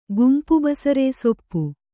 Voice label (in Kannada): "ಗುಂಪುಬಸರೇಸೊಪ್ಪು", Kannada